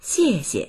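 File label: "xie4xie", Mandarin Chinese; 谢谢; xièxie; ありがとう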